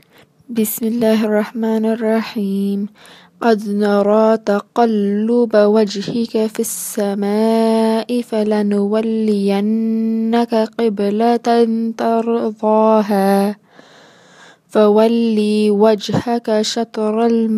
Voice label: Archway recitation